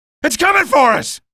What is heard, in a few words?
alert gunship, male